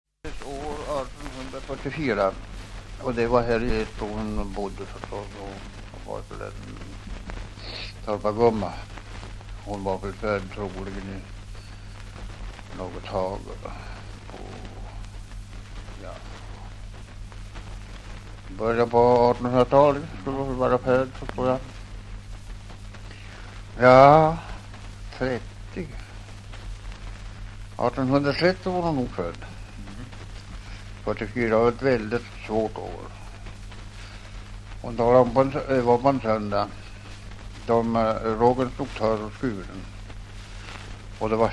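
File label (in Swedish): Edsbro Uppland, svenska sagor dialekter; Folkminnen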